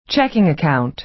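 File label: "checking account", American English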